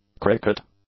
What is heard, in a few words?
"cricket", English; nouns